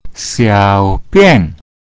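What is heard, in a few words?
"xiao3bian4", Mandarin Chinese; means "to urinate"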